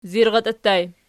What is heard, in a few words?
"zirratii", Archi